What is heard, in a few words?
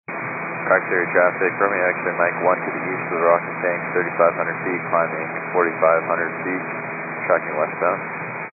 122.925 MHz; scanner radio